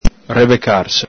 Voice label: "rebecarse", Venetian